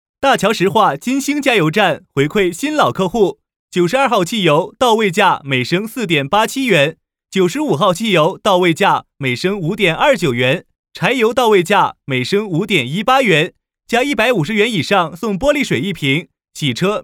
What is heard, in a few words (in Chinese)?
男 促销干音; 大桥石化金星加油站